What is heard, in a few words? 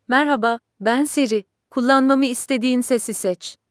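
Turkish; iOS 26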